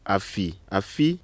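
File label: ewe low low low high 2 syllable; tone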